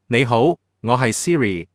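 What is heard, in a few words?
Hong Kong Chinese; iOS 26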